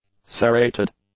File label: "serrated", English; adjectives